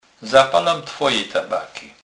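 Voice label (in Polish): "tabaka", Kurpie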